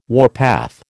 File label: "warpath", American